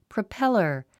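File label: "propeller", English word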